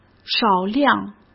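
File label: "少量", Mandarin Chinese; chinese flashcards; hsk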